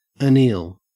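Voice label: "anneal", Australian English; pronunciations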